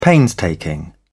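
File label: "painstaking", British English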